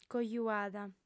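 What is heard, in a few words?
"cojuada", female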